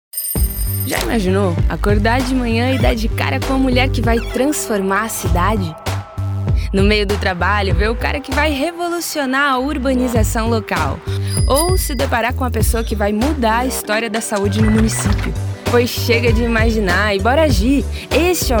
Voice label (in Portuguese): spot jovem eleitor; tse 2020; mais jovens na politica